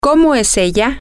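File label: what Is She Like, Spanish, female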